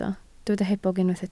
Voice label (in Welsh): "hebog", Welsh